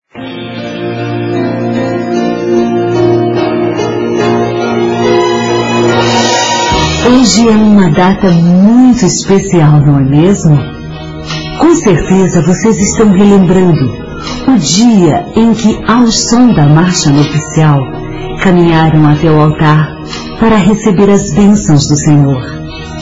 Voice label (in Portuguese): telemensagens aniversario de casamento; COMEMORATIVAS